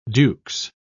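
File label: "dukes", British English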